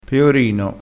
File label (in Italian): "peorino", dialettale